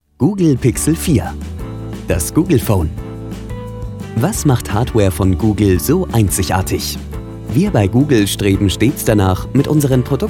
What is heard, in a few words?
Showreel, male voices